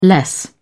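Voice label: "less", British English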